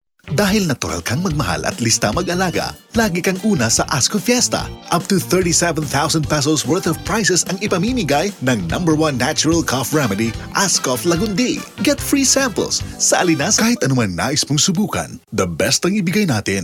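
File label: Tagalog, commercial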